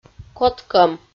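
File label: "коткӧм", Komi, female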